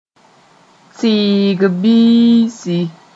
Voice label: "tɩɩg-biisi", moore